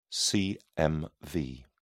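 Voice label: "cmv", English, British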